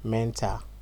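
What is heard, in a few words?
"mental", Nigerian Pidgin, male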